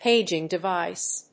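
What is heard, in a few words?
"PAGING DEVICE", English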